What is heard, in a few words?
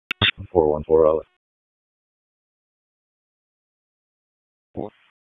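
Raleigh Police Southeast District Dispatch, p25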